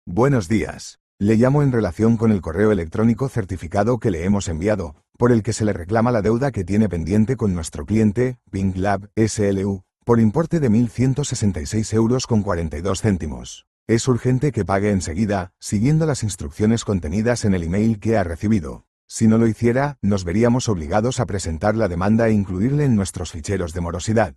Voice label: audio call